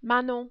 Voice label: "Manon", French